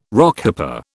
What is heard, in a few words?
"Rockhopper", British